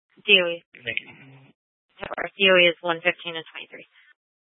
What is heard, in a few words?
Tempe PD, scanner; Rgnl Wireless Co-op (RWC)